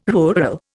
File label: "rural", Indian